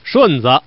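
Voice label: Man, straight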